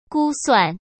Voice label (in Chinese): "估算", Chinese; hsk